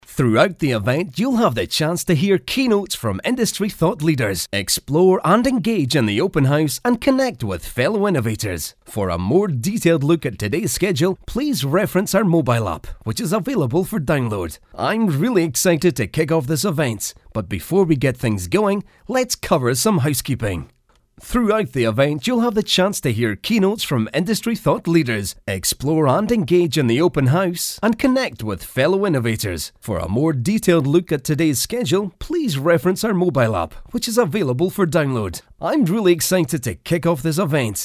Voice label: English Scottish animation

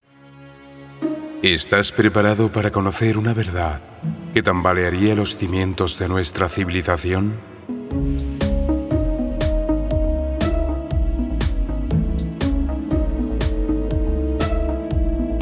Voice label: spanish movie trailers

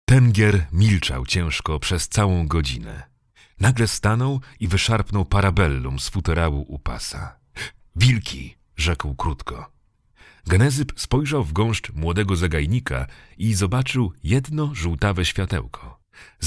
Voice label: polish audiobooks; Ein professioneller polnischer Sprecher mit langjähriger Erfahrung in landesweiten Medien

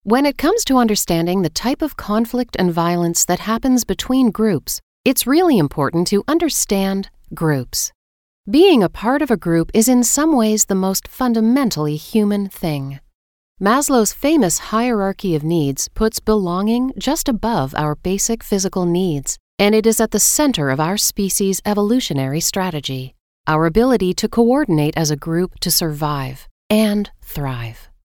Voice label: English Canadian narration

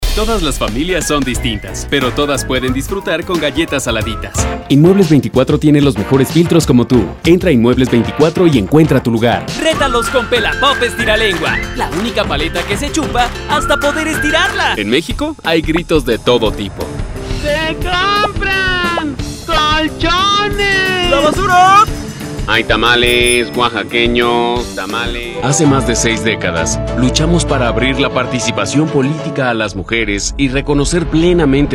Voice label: spanish mexican commercial; Mexico

